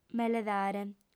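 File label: "meledare", female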